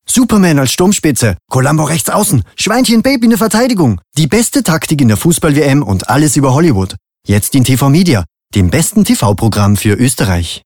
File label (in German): Werbung; TVMedia